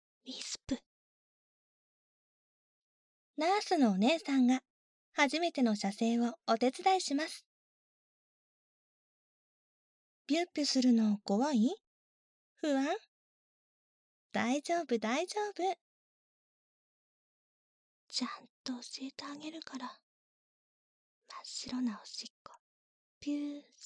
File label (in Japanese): タイトルコール